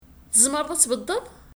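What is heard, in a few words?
Kabyle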